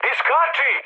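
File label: Serbian bailout mood high, speech; War Thunder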